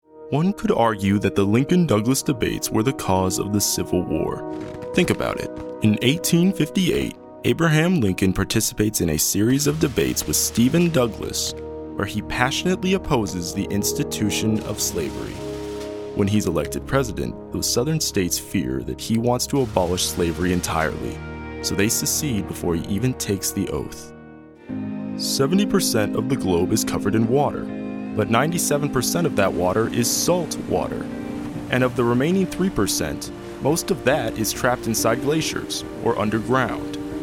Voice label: Narration, Male voices